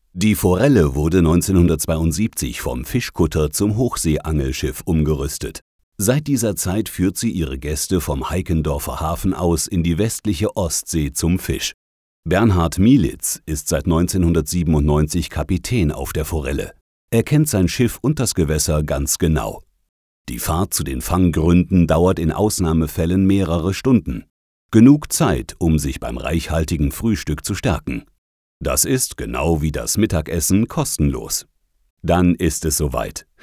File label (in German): de voiceover, deutsch maenner; sprecher